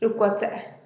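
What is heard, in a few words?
"rukwatse'e", Teotitlán del Valle Zapotec; laugh hard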